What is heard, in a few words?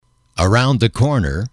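"around the corner", male, English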